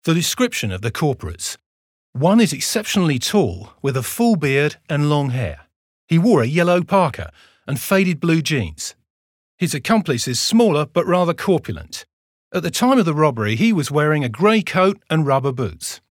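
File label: British English, Akzent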